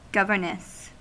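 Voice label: "governess", American English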